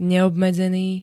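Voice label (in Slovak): "neobmedzený", Slovenské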